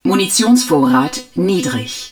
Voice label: HUD ammunition low, german